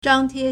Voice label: "zhang1tie1", Chinese

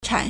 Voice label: "chan3", Chinese

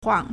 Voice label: "huang4", Chinese

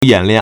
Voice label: "yan3lian4", Chinese

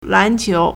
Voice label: "lan2qiu2", Chinese

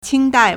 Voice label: "qing1 dai4", Chinese

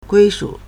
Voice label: "gui1shu3", Chinese

